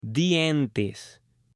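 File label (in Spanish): "dientes" Spanish; CUERPO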